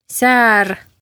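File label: "säär", Estonian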